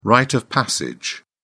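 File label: "rite of passage", British English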